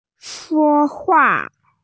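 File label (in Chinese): "shuo1hua4", Mandarin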